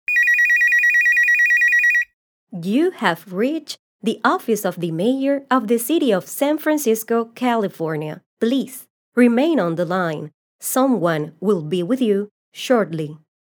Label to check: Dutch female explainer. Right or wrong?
Wrong